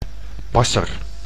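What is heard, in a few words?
"passer", Dutch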